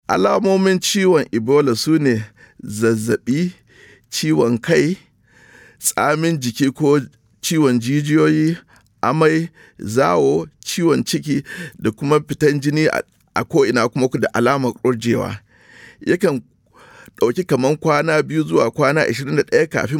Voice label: Hausa Message, radio spots; ebola

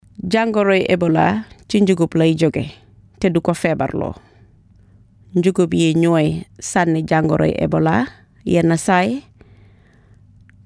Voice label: wolof; ebola; radio-spots; vhf

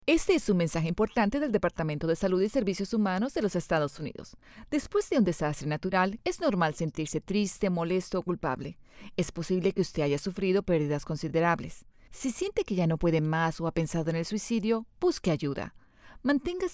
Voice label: psa; disaster coping depression

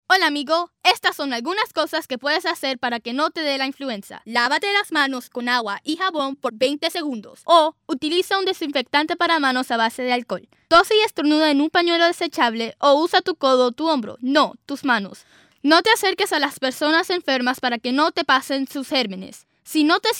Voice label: PSA Kids Hygiene Spanish; h1n1 flu

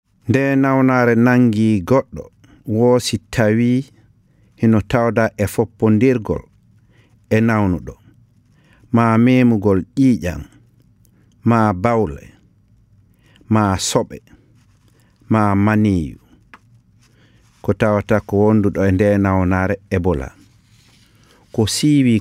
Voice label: Fullah PSA Message, radio spots; ebola; vhf

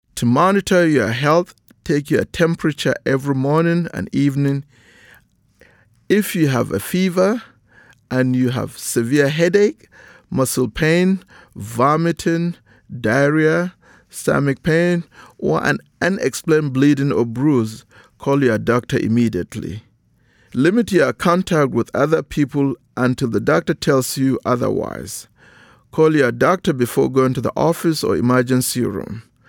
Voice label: English PSA Message; ebola; radio-spots; vhf

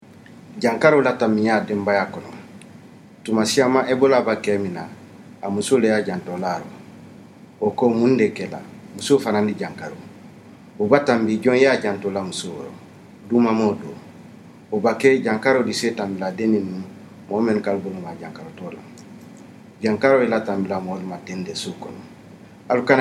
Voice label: malinke; ebola; radio-spots; vhf

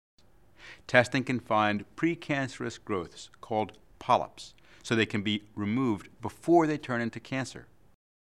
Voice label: English; Colorectal Cancer

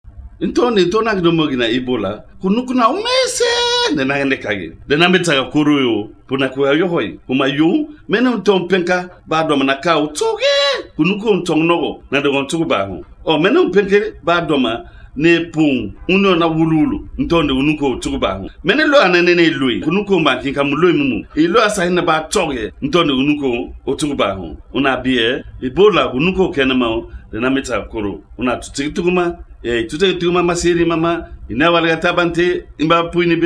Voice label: Limba; ebola; radio-spots

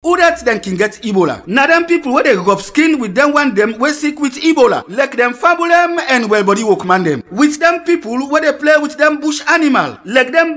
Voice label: Krio, radio spots; ebola

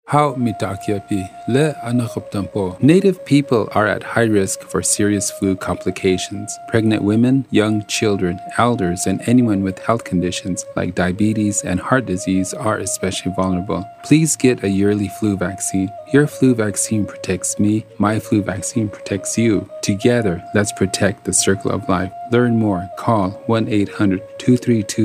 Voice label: lakota male; flu; psa; radio; native-and-alaskan-psas; serious protection

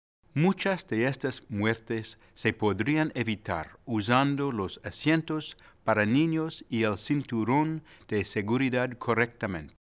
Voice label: Car Safety Spanish; Seguridad Ninos Auto

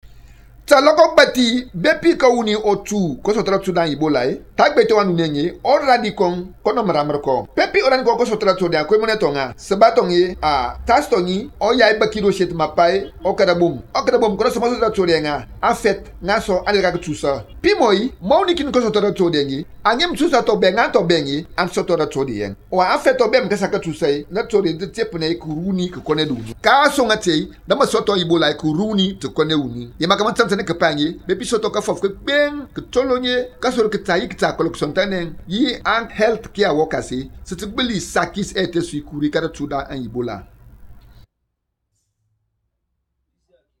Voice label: Themne; ebola; radio-spots

